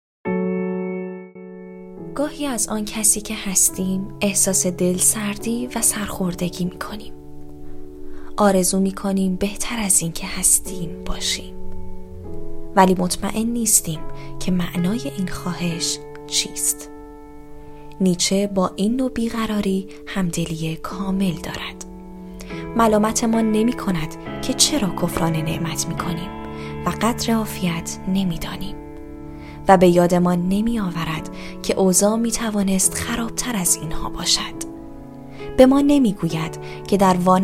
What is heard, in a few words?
narrator